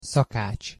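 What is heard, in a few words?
"szakacs", Hungarian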